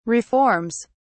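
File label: "reforms", English, uk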